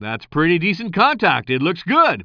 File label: commentator